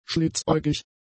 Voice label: "schlitzäugig", German; adjectives